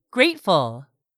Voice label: "grateful", English; 3000 words

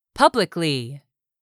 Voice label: "PUBLICLY", English; 3000 words

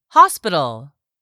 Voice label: "HOSPITAL", english; 3000 words

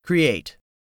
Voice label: "create", english; 3000 words

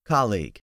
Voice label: "COLLEAGUE", english; 3000 words

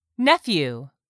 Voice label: "nephew", English; 3000 words

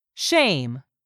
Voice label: "shame", english; 3000 words

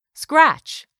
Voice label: "scratch", English; 3000 words

